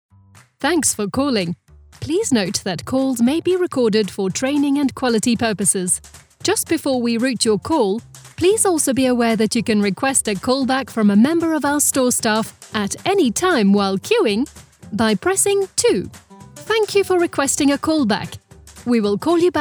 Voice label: english british ivr